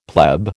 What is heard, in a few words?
"pleb", American